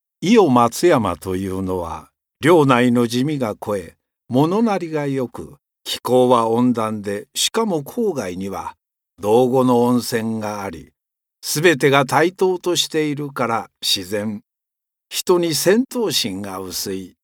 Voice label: 地方紹介ナレ

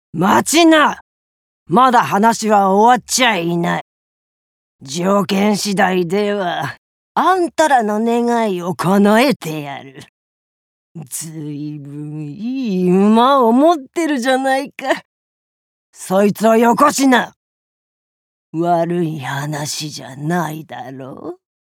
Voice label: 盗賊のボス50代

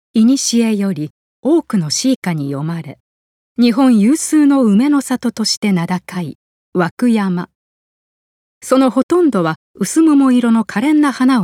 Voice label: 教養ナレ

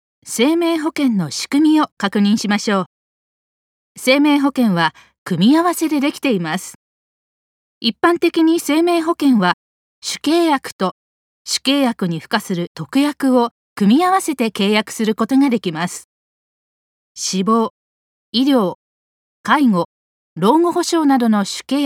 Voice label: 解説ナレ

